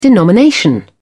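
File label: "denomination", British English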